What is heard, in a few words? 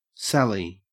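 "sally", Australian English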